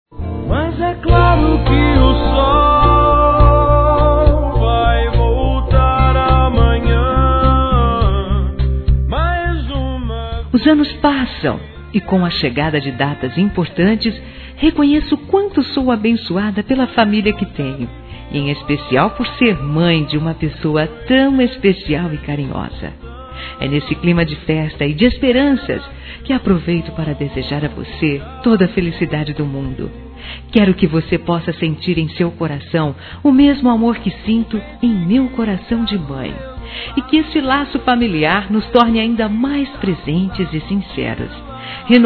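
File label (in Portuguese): ANO NOVO P FILHO(A), Feminino; Telemensagens; Natal e Ano novo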